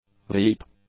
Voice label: "veep", English